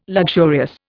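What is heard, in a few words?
"luxurious", Indian English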